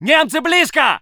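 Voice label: voice soviet infantry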